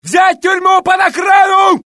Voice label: Russian; mohaa; dialogue